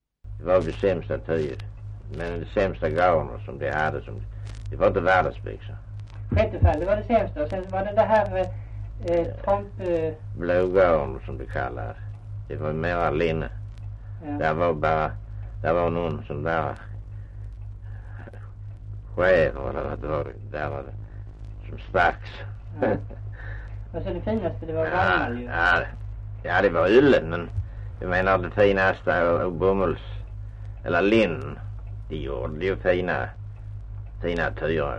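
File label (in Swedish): Östra Ingelstad Skåne, dialekter; Folkminnen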